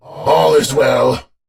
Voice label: Patrol, en; Warframe; Battle; Dax; DaxKnight